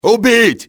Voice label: attack, russian soldier; enemy; XBOX

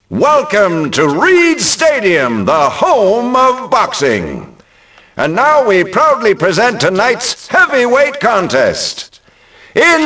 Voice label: announce, ENGLISH; Rocky; XBOX

